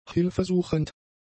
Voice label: "hilfesuchend", German; adjectives